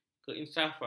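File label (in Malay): "keinsafan", Malay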